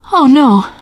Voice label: reaction negative, teen girl; voice; source engine; left 4 dead 2; survivor